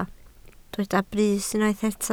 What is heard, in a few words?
"brys", Welsh